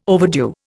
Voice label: "overdue", Indian

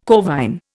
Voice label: "corvine", Indian

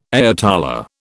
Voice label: "ayatollah", British

